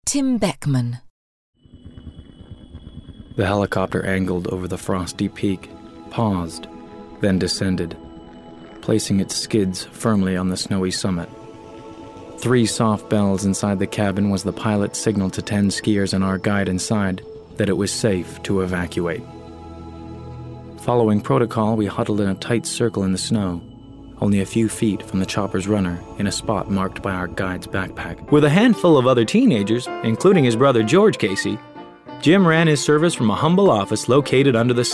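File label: narration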